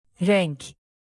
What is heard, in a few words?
"renk", Turkish, native